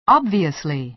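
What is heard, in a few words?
"obviously", American English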